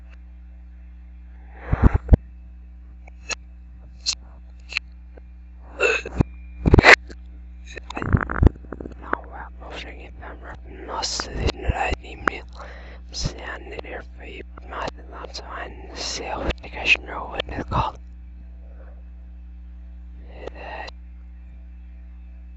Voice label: Whisper